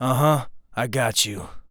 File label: Acknowledge, Human Male; zps; HumanMale-01